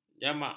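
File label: "jamak", Malay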